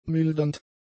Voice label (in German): "mildernd", German; adjectives